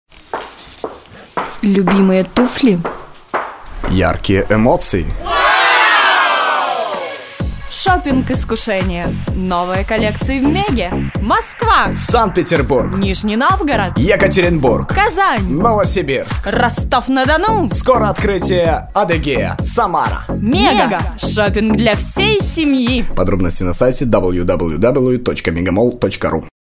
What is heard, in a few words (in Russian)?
Radioreklama; MEGA